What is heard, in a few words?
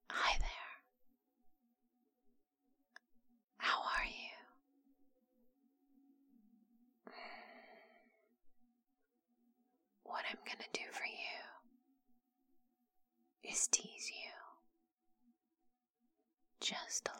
Cock Whispers